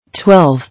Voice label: "Twelve", English

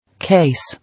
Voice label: "Case", English

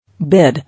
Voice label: "Bid", English

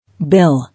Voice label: "Bill", English

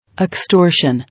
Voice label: "Extortion", English

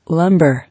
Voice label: "Lumber", English

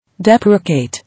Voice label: "Deprecate", English

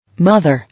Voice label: "Mother", English

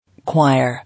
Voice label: "Choir", English

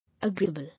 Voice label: "Agreeable", English

